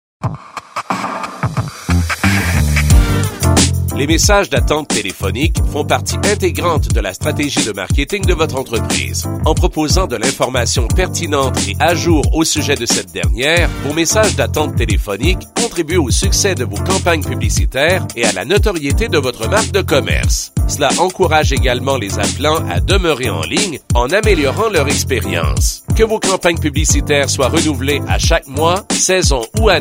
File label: French